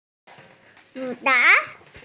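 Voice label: "gu3zhang3", Chinese, female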